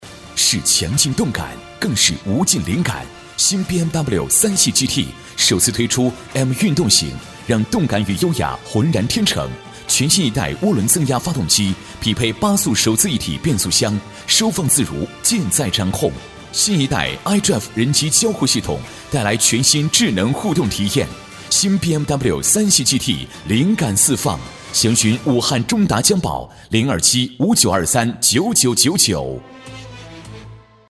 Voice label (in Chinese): 男 广告 品质; 宝马三系